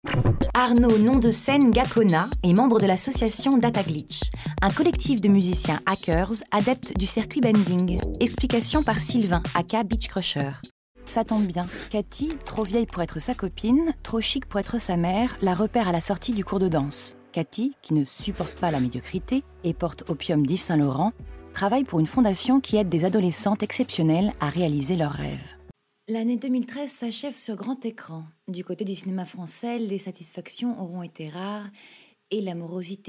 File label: female French radio compilation